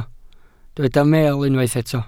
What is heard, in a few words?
"meel", Welsh